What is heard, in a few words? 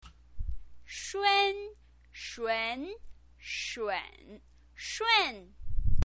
"shun", chinese pinyin